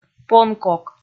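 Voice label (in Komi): "понкок", Komi, female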